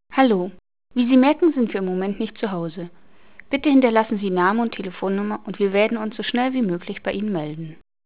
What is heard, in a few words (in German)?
Hauptansage